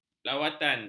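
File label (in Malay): "lawatan", Malay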